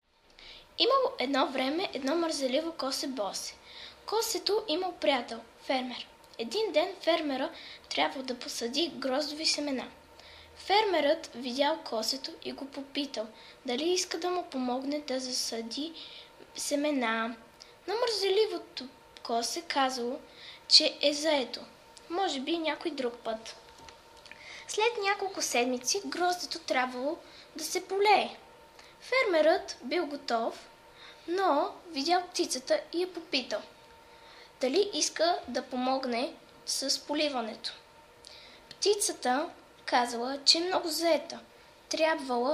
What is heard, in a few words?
The Lazy Bird in Bulgarian